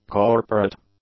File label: "corporate", English; adjectives